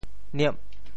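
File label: "niep8", Teochew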